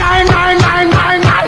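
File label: "nein", German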